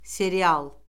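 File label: "serial", Azerbaijani; Orfoqrafiya Lüğəti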